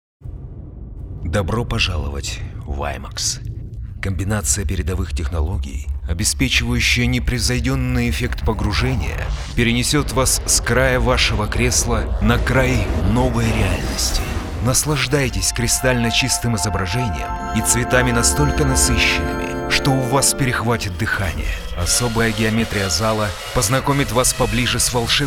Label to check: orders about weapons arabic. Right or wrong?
Wrong